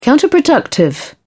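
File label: "counterproductive", British English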